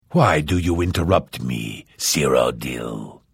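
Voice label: Hello, Vo; The Elder Scrolls III - Morrowind; Morrowind GOTY GOG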